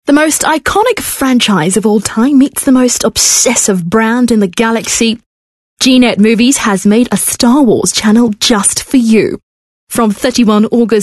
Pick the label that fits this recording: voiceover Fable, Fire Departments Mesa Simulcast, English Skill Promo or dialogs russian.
English Skill Promo